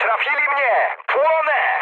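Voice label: on fire, mood med, Polish speech; War Thunder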